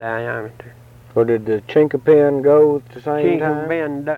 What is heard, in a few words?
Interview with 65 year old white male Mountain Rest South Carolina, american english dialect